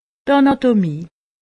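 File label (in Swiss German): "anatomie", Haut Rhin; medecine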